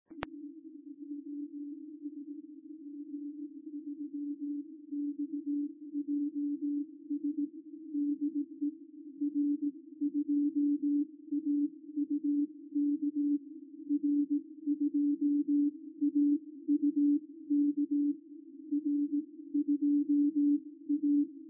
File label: qrp qso; recorded by ruud vos